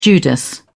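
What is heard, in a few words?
"judas", British English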